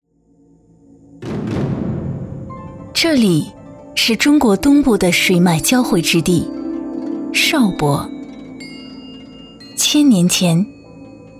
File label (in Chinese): 女 纪录片